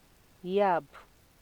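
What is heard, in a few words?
"yab" Nigerian Pidgin, female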